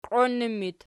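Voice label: "qioinnu" locative, Archi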